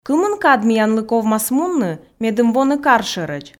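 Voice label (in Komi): кымын кад миянлы ковмас мунны медым воны кар шӧрӧдз, Komi, female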